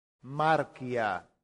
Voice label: "marcia", latin; santillana